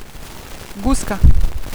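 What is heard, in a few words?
"guska", Serbian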